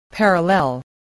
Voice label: "parallel", English, voice